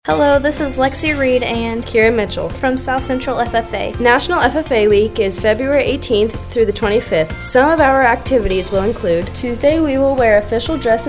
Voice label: female; Farina South Central FFA